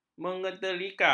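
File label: "menyeterika", Malay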